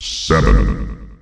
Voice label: "seven", announcer male; Unreal Tournament; ut2004

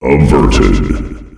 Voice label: "Averted", announcer male; Unreal Tournament; ut2004

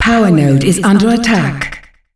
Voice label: PowerNode is under attack, female announcer; Unreal Tournament; ut2004

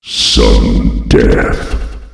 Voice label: sudden death, announcer male; Unreal Tournament; ut2004

